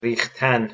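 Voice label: "riichtan", Persian, male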